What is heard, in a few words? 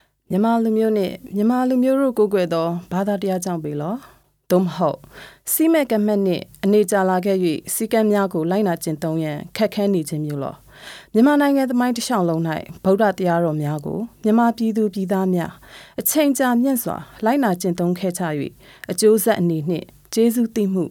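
Burmese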